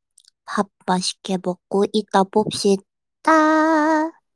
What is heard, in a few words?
voice message